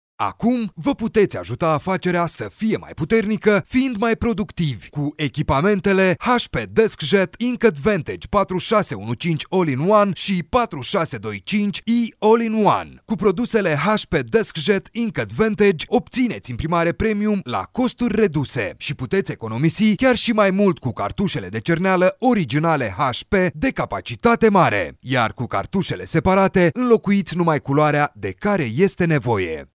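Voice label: Romanian male voice over, sales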